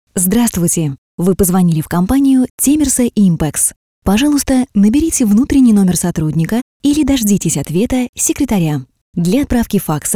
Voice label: IVR; Temersoimpex; ivrplus